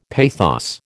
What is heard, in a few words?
"pathos", American